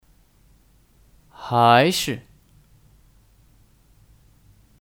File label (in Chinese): "还是", Háishi